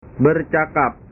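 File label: "bercakap", Indonesian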